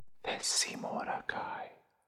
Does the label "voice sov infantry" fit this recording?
No